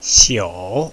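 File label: "xiu3", Chinese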